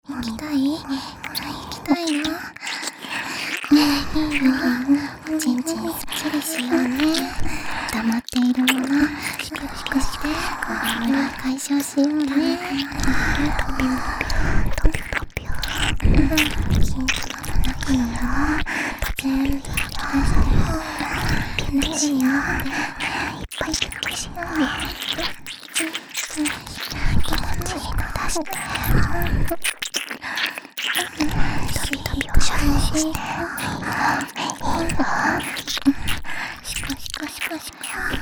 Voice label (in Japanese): お姉ちゃんの両耳射精煽り+両耳キス